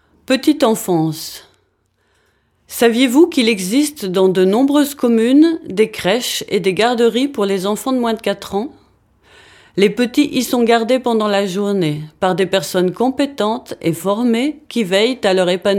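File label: French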